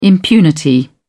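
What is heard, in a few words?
"impunity", British English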